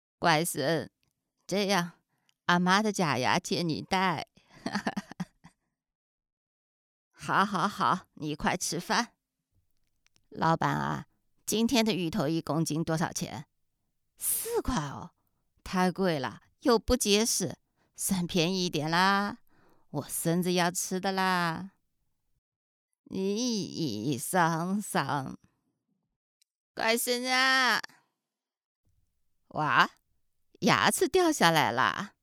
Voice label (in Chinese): 老年奶奶音